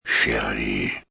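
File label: Dear French M, male, French; Talking; Endearment, foreign; VoiceBox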